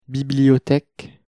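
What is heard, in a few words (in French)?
"bibliotheque", French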